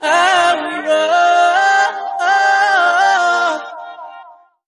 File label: "oh oh oh yeah", Voice